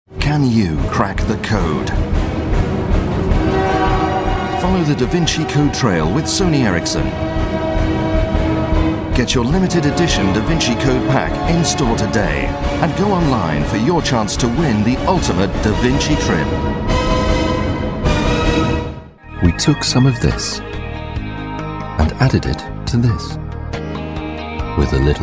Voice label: Adverts; Voice-over demos; TV Radio Advert